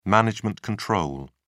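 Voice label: "management control", British English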